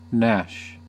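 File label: "gnash", US English; pronunciations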